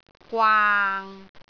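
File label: "gwaang6", Cantonese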